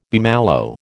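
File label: "bummalo", American English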